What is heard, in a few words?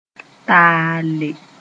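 "taale", Mooré; mop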